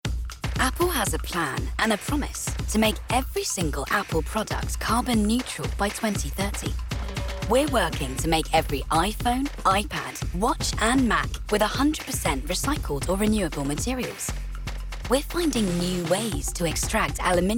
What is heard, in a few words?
corporate